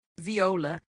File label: "Viola", Esperanto